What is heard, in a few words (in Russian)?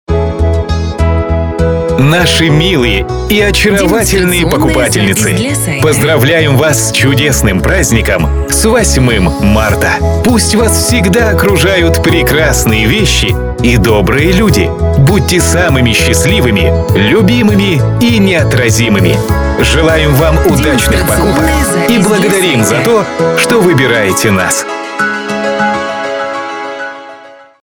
Поздравление с 8 марта, мужской